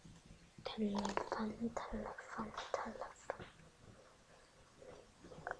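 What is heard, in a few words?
"telephone", spoken word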